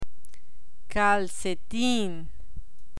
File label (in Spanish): "calcetin", Spanish; spanish alphabet; learn spanish